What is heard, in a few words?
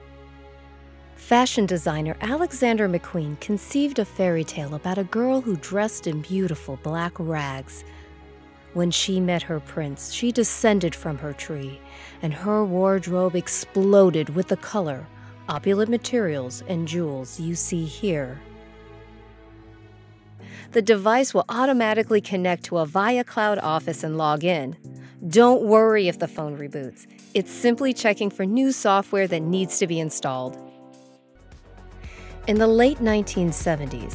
Narration